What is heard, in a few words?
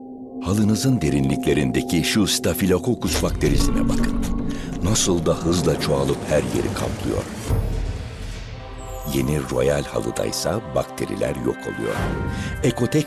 turkish m narration